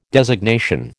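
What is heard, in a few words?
"designation", American English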